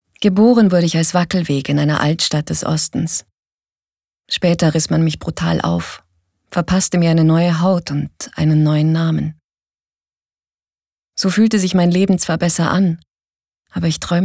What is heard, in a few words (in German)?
Lebendig